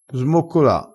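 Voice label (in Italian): "smoccola", vocabolario dialettale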